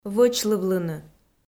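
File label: "вӧчлывлыны", Komi, female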